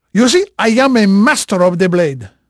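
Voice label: Portuguese male, voice; Pirates Of The Caribbean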